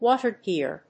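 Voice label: "water deer", English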